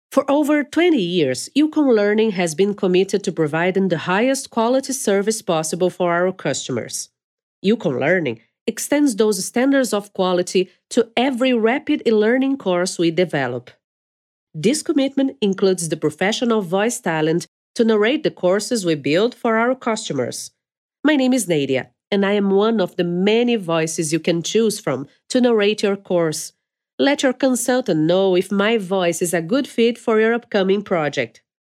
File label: Brazilian Accent